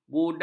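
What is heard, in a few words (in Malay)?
"budak", Malay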